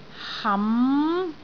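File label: "ham2", Cantonese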